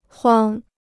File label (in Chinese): "慌", Chinese